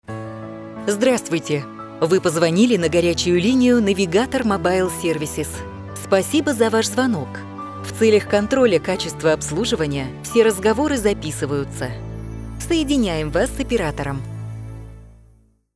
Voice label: Ivr